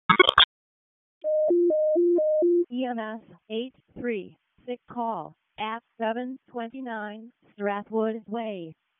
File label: EMS ALERT, p25